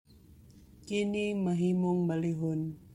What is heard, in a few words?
Cebuano